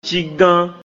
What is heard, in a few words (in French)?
"Cígán", Tissu de qualité; Mina